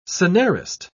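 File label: "scenarist", British English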